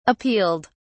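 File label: "appealed", British English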